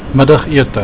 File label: "middagete" Afrikaans